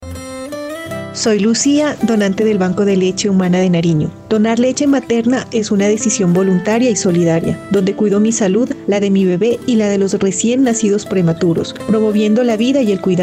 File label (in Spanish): Cuña testimonio Donante